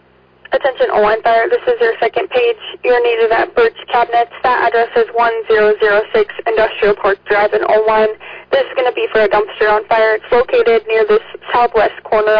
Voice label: Fire, dispatch